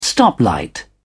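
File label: "stoplight", English, British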